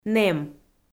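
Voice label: "нэм", Komi, female; audio dict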